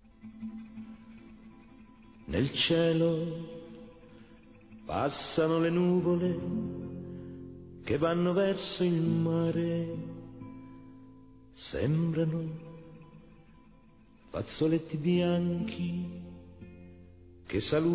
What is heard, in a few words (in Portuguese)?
Aniversário Mãe duas vozes, Telemensagens; Aniversários; Aniv Familiar